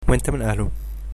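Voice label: good night, Egyptian Arabic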